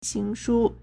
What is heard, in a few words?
"xing2shu1", Chinese